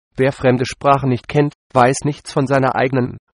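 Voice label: proverb, German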